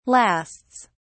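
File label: "lasts", British English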